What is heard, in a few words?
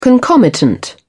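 "concomitant", British English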